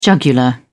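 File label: "jugular", British English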